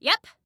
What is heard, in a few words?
"Yes", Female; Female 02